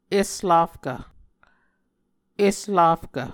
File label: "eslafkv", Muscogee